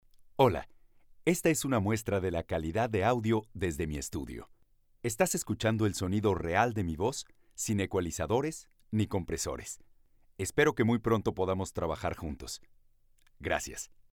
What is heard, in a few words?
Studio sound